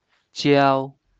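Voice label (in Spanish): "浇", chino mandarin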